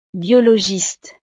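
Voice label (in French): "biologiste", French; profesiones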